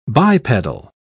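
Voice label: "bipedal", British English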